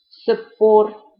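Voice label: "tsuppor", Ossetian; QUATTUOR; ira oss RU SE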